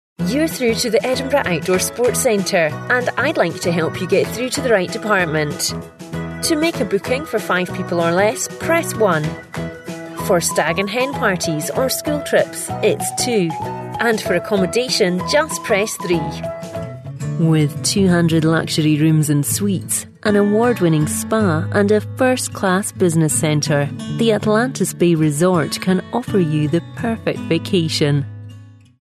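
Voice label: English, Scottish, female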